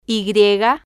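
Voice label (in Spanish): "y", spanish, female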